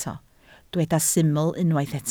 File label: "syml", Welsh